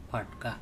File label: "pHaTka", Andamanese; crow